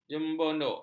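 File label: "jemuduk", Malay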